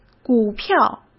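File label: "股票", Mandarin Chinese; hsk; chinese-flashcards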